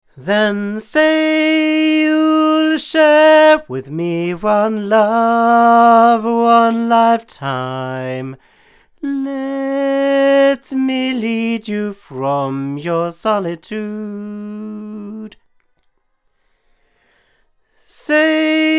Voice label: male; Pearl ELM-C; microphones